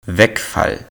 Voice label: "Wegfall", German

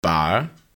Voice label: "Bar", German

